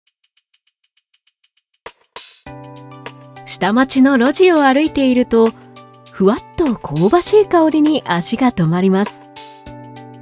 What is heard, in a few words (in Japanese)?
バラエティ（街ブラ番組）, ナレーション